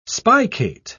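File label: "spicate", British English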